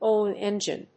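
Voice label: "oil engine", English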